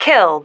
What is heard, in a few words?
"killed", female